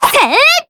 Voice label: slash, jp; weiss

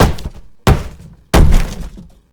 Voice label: anger

